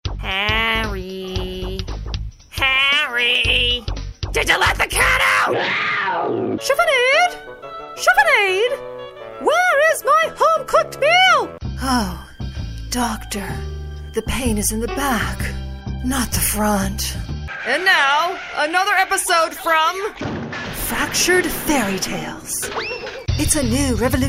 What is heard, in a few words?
English Character